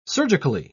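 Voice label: "surgically", British English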